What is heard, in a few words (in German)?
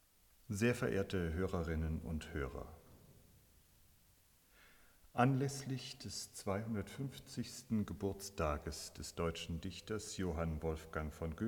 Hoerstueck fuer Stimme und Gong; Faust Drei